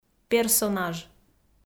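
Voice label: "персонаж", Komi, female; audio dict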